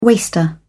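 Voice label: "waster", British English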